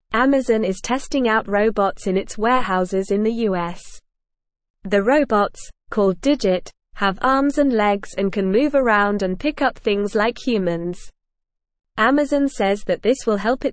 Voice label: English Newsroom Upper Intermediate NORMAL Reading; Amazon Tests Humanoid Robot in US Warehouses